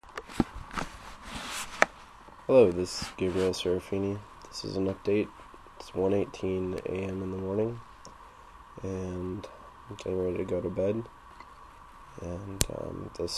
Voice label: Voicemail To World